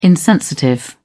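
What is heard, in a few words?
"insensitive", British English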